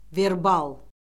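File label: "verbal", Azerbaijani; Orfoqrafiya Lüğəti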